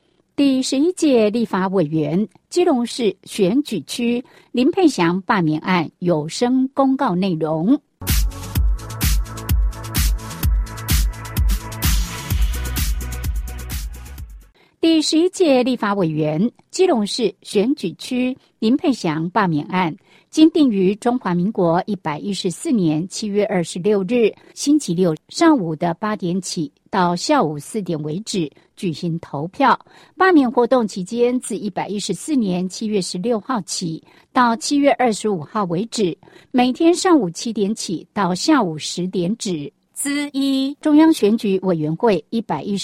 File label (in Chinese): 國語; 第11屆立法委員(基隆市選舉區)林沛祥罷免案有聲公告內容